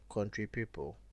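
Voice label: "countrypeople", Nigerian Pidgin, male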